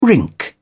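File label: "rink", British English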